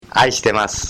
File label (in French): japonais (voix homme)